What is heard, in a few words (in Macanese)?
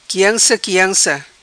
Quianca quianca, Macanese